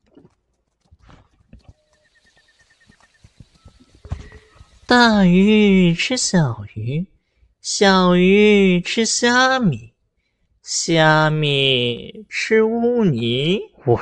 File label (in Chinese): 妖异 和 霸气 动画; 两角